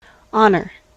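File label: "honour", US English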